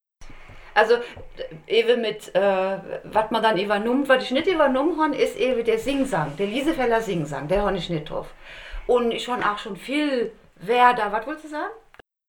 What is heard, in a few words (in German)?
liesefella singsang; Emmelshausen / Liesenfeld